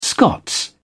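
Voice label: "scots", British English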